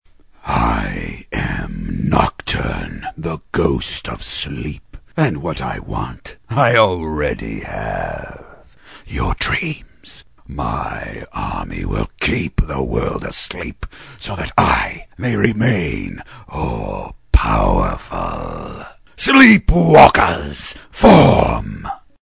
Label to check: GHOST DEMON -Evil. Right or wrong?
Right